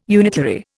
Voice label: "unitary", Indian

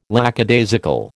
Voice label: "lackadaisical", American

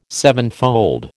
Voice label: "sevenfold", American

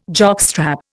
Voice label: "jockstrap", Indian

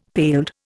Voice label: "paled", Indian; Dictionary

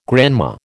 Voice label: "grandma", American

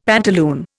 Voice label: "pantaloon", Indian; Dictionary

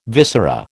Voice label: "viscera", American

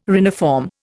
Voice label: "reniform", Indian

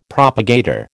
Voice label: "propagator", American

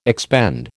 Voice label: "expend", American

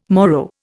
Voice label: "Moro", Indian

